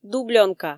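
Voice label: "дублёнка", Komi, female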